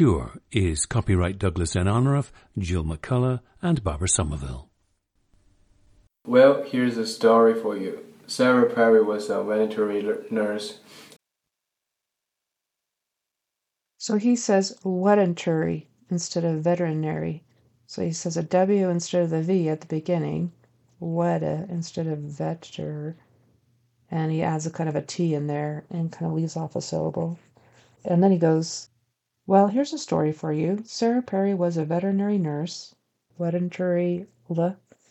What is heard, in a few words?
chinese beijing accent